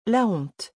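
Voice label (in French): "la honte", French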